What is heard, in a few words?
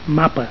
"mapa", Catalan